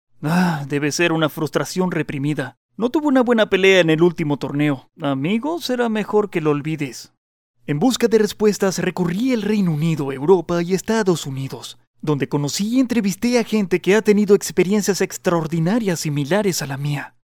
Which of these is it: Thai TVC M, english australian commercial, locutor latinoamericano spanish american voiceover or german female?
locutor latinoamericano spanish american voiceover